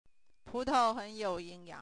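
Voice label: putao sentence, Mandarin Chinese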